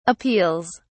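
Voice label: "appeals", British English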